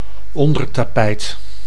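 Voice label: "ondertapijt", Dutch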